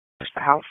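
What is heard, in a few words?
Dispatch, P25; WSO DISP 1; wake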